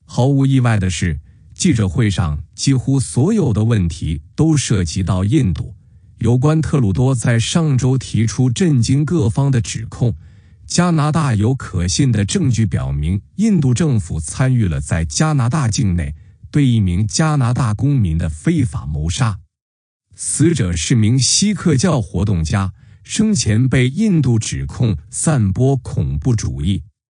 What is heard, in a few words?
Chinese news